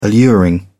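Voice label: "alluring", British English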